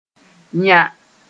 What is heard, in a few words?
"yã", moore